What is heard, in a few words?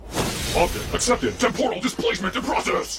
computer vo; the doom game